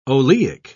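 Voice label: "oleic", British English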